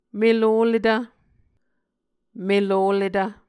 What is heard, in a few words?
"meloHletv", Muscogee